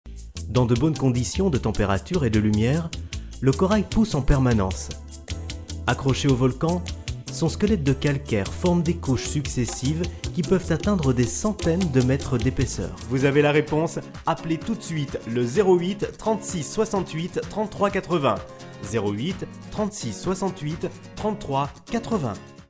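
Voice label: Belgian French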